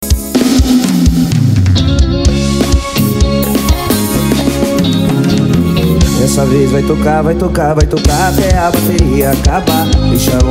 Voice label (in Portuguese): locutor a voz magnifica